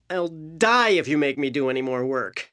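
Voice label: female encumbered; nwnds audio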